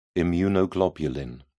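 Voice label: "immunoglobulin", British English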